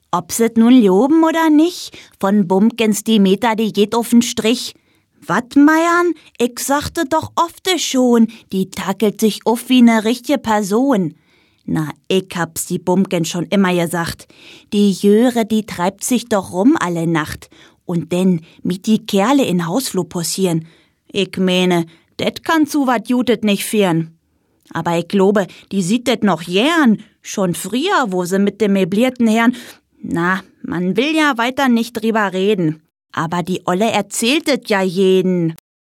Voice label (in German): berlinerisch, german voice over; dialekt; Dialekte; Hörspiel